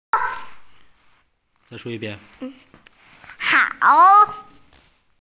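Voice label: "hao3", Mandarin Chinese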